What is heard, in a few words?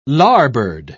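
"larboard", British English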